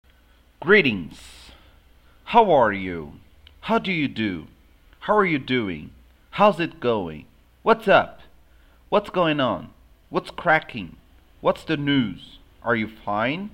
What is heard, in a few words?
Simple Conversation; english course module 01